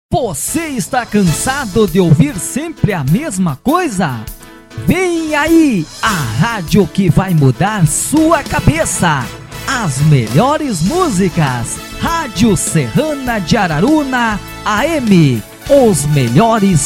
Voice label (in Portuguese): locutor rodeios radio; radio serrana de araruna